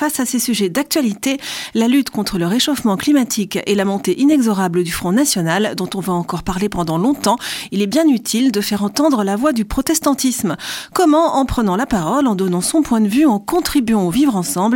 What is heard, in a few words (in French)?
Spot radio; Regards protestants